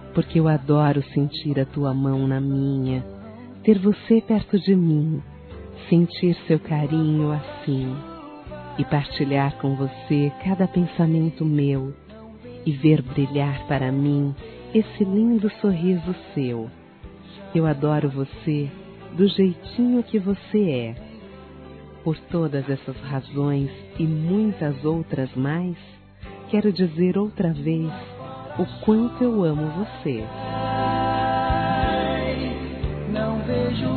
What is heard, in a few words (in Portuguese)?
O QUE É O AMOR F; Telemensagens